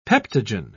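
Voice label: "peptogen", British English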